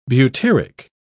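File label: "butyric", English, British English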